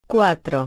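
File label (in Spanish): "cuatro", Spanish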